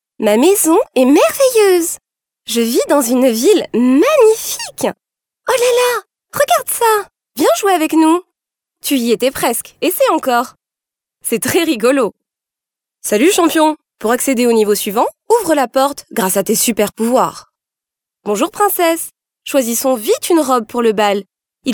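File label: French female children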